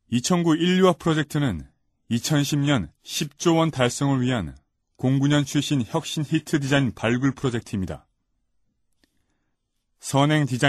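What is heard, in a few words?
Korean, male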